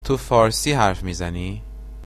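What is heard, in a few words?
do you speak, Persian informal; to fârsi harf mizani?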